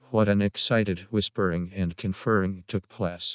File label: Creaky; arctic